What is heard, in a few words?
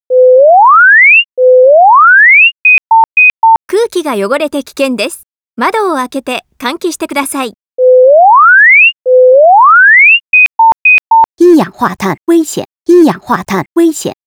co warning voice jp cn; 都市ガス警報器